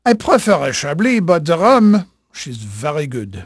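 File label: French male, voice; Pirates Of The Caribbean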